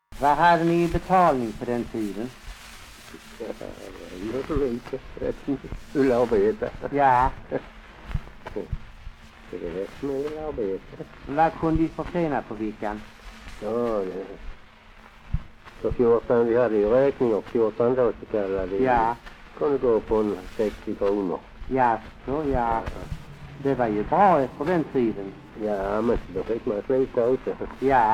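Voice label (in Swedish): Allerum Skåne, Svenska sagor dialekter; Folkminnen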